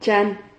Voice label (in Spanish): "chan", Silabas